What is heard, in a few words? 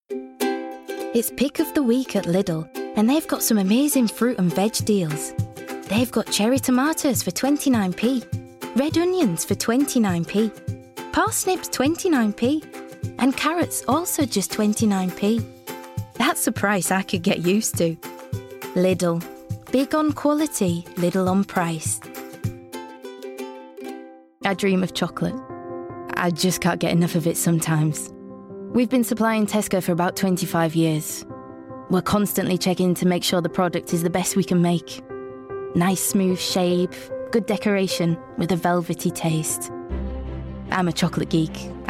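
commercial, regional accents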